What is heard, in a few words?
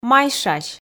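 "майшась", Komi, female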